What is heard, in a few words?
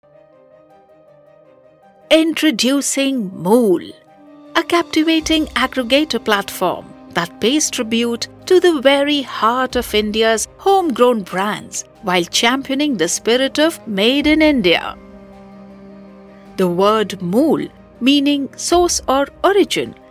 English; Mool